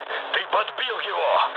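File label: player killed enemy, mood low, Russian; War Thunder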